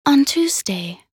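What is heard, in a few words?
voc en week, English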